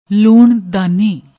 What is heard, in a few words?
"loon daani", Punjabi